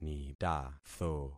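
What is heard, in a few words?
"nitatho", concatenated syllables; Affixing experiment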